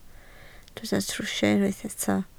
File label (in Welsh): "trwsio" Welsh